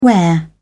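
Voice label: "where", British English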